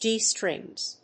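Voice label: "G strings", English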